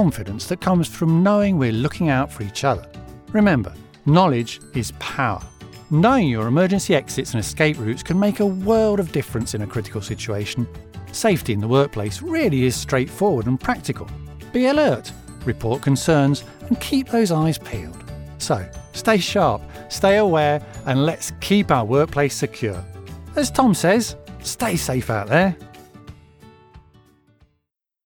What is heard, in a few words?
Explainer Video, Explainer: Believable Genuine, male voice; Explainer Videos